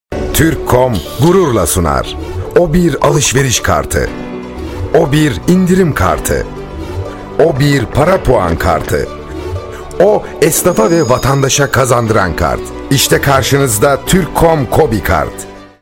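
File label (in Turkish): reklam, Turkish; turkkomkobi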